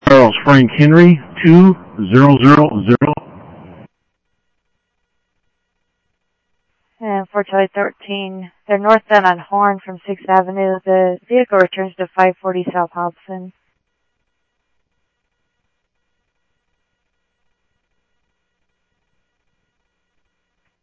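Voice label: Lakes Sheriff Lake Patrol, scanner audio trunk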